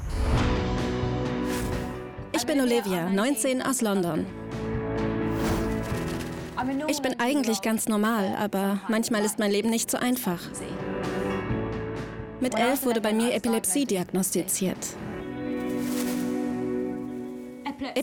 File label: Voice Over TV